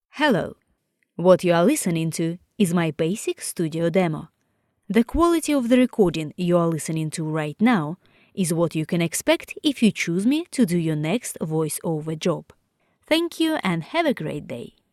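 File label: Russian English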